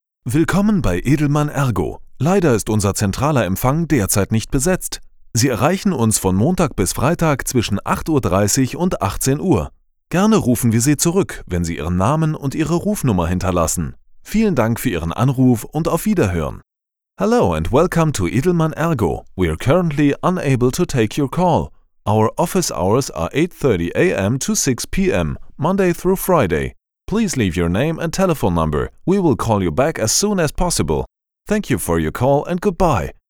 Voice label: Phone Announcement